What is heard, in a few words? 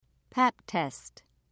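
"pap test", British English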